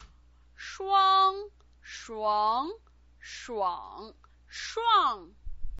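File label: "shuang", Mandarin Chinese pinyin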